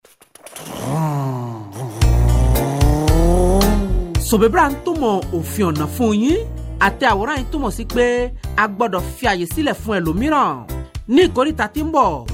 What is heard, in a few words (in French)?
CODE DE LA ROUTE YORUBA